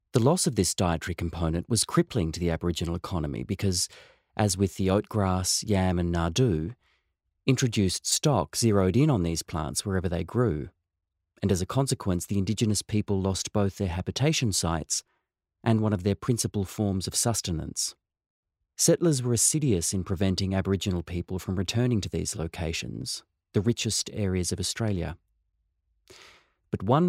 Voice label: VO Audiobook non-fiction; Dark Emu